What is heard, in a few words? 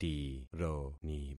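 "tironi", concatenated syllables; Affixing experiment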